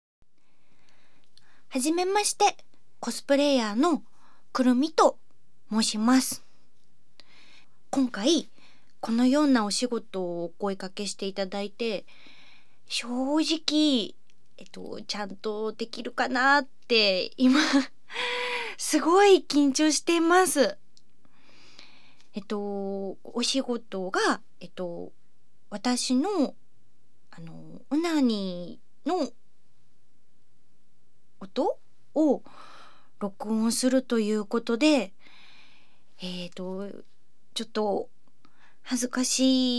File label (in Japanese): 自己紹介, ASMR